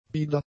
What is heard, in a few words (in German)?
"bieder", German; adjectives